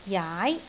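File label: "jaai2", Cantonese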